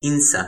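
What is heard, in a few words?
"insa", Toki Pona; linku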